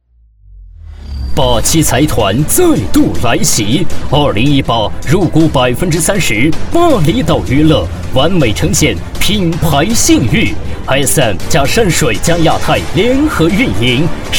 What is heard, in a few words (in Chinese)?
男, 专题, 公司专题